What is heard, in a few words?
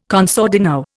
"con sordino", Indian